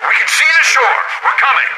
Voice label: radio in transit; Left 4 Dead 2; Source Engine; video games; npc; boatman